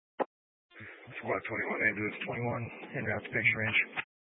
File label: Fire Department Dispatch